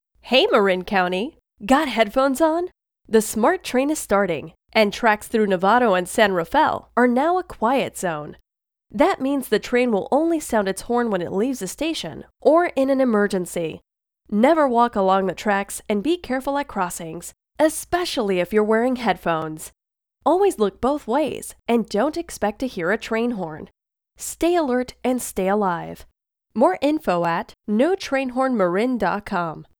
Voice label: Spotify Ad; sanrafaelcatrains